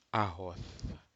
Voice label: Aneityum weave